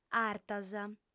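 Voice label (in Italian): "artas", lingua sarda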